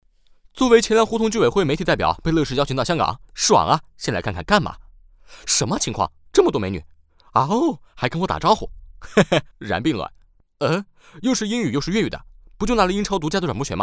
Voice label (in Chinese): 男 发布会 年轻 口语化 时尚; 第三代超级电视发布会; 万万没想到